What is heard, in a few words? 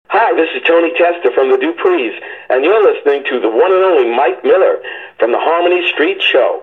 promo